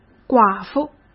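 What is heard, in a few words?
"寡妇", Mandarin Chinese; hsk; chinese-flashcards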